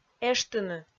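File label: "эштыны", Komi, female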